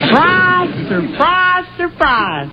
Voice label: surprise; hl2dm

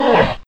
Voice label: pain; tf; slender; bioclone

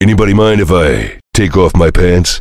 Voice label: heavy battlecry; tf; duke

